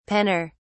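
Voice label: "penner", English, British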